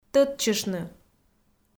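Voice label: "тӧдчыштны", Komi, female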